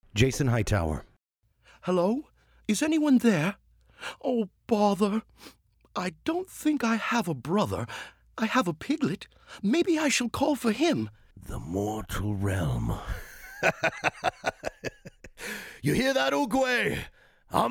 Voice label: Animation